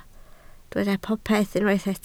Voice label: "popeth", Welsh